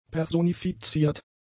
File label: "personifiziert", German; adjectives